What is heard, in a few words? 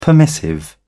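"permissive", British English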